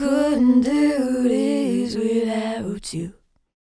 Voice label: Mixed Berry Jam Voice, 70s Analog Funk; Sonic Foundry; What it Is! 70s Analog Funk